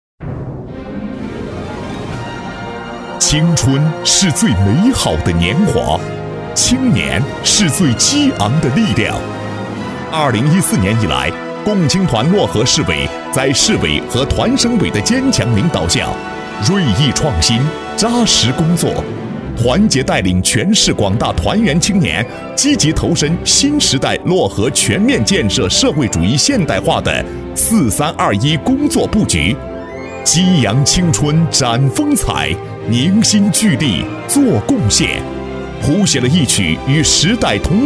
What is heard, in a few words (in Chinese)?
共青团汇报片 沉稳